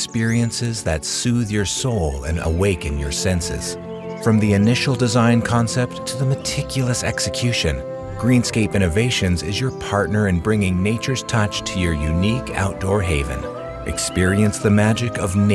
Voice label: Landscape Commercial